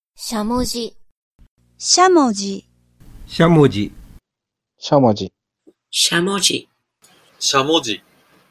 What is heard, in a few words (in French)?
Prononciation de shamoji